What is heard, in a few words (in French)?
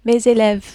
"mes eleves", French